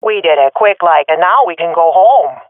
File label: round won quickly, swat fem; vo; Counter Strike Model Pack; CS:GO